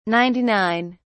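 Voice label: "ninety-nine", British English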